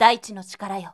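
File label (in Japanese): "shonen daitinotikarayo", Japanese boy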